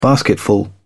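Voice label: "basketful", British English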